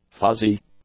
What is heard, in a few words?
"fuzzy", English; adjectives